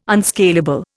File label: "unscalable", Indian